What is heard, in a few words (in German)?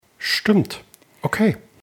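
"stimmt", German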